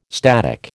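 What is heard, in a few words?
"static", American English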